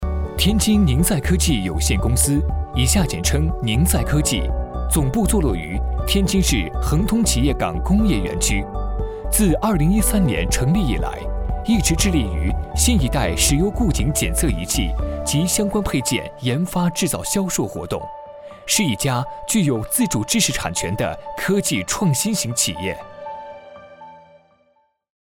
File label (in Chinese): 男 宣传片 科技; 天津宁赛科技